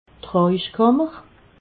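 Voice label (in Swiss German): "rauchkammer", Alsatian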